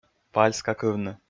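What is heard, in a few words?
"пальскакывны", Komi, male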